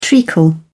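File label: "treacle", British English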